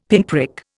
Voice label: "pinprick", Indian; Dictionary